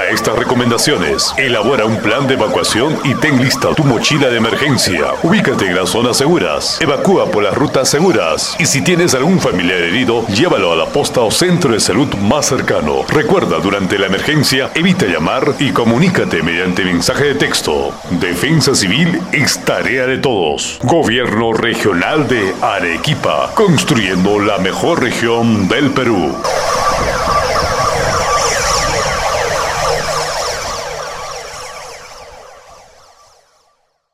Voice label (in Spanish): spot simulacro de sismo; simulacro de sismo 31 de mayo